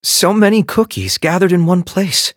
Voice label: touch, en; Cookie Run